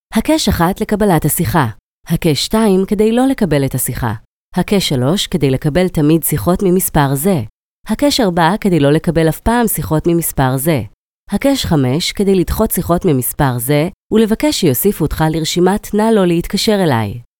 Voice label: Accept Call Navigation, hebrew